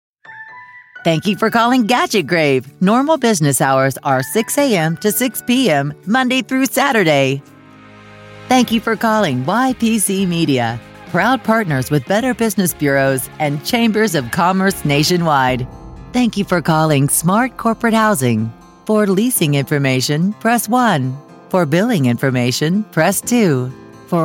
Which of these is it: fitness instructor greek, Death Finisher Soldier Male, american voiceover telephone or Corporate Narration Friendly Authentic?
american voiceover telephone